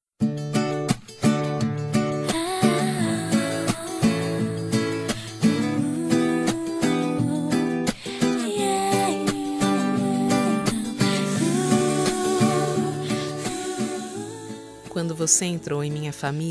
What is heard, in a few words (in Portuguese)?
ANIV NORA (F); Telemensagens 2017; Aniversários; Aniv Familiar